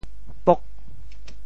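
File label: "pok8", Teochew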